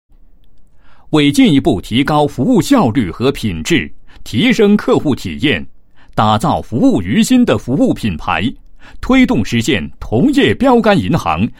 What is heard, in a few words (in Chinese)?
男 宣传 建行宣传资料